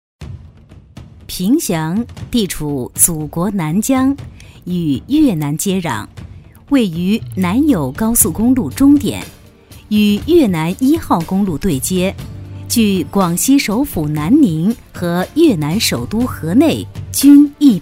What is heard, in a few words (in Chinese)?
女 专题 城市专题; 凭祥